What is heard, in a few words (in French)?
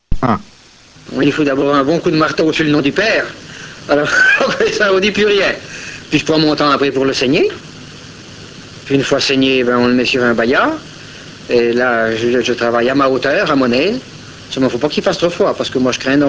bourgogne, accents de France